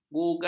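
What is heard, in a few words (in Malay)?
"bugar", Malay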